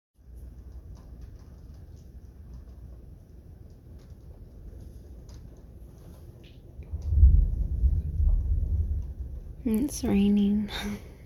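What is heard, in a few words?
[F4M] [Rainy Day Ramble][Giggles][Sensual][Breathy & Hot], female; Why I Like to Say 'Daddy'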